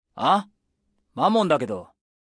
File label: Phone; Mammon